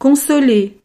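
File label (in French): "consoler", French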